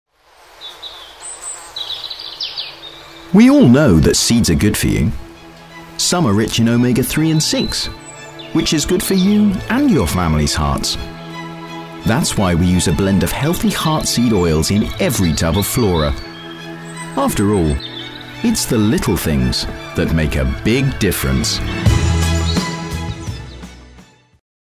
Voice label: Healthy Living Commercial